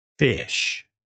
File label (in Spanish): pez inglés; palabras ingles infantil